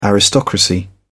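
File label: "aristocracy", British English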